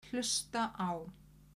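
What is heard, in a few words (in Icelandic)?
"hlustaá", Icelandic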